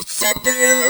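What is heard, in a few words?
effects talk, Funky Vocals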